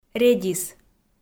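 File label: "редис", Komi, female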